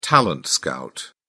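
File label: "talent scout", British English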